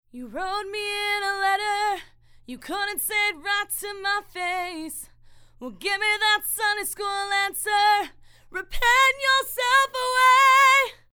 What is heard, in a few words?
Female